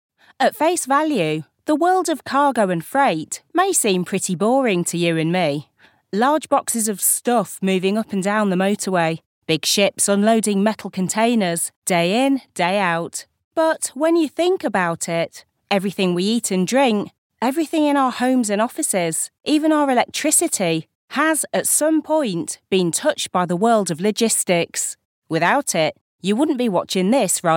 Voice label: documentary